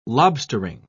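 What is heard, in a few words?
"lobstering", English, British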